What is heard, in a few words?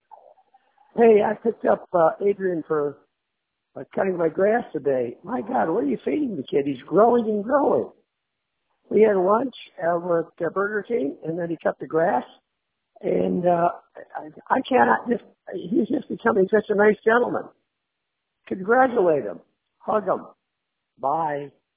voicemail